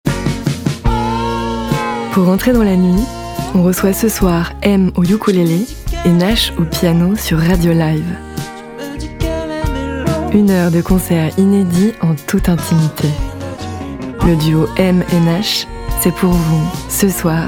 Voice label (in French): Demo Radio Autopromo